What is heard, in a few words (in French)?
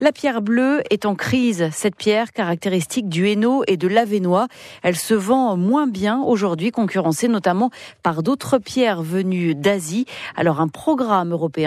Reportage; France bleue nord